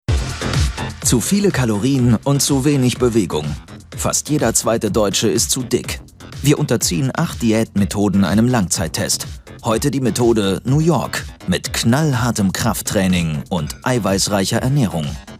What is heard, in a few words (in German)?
Dynamisch